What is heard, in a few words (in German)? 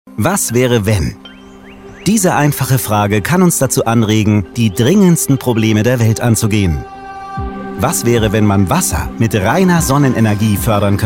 Imagefilm Fragen natuerlich anregend sanft freundlich